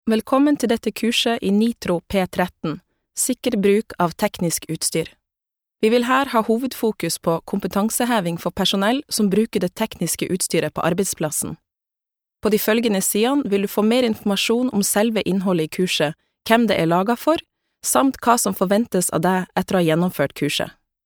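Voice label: Norwegian e-learning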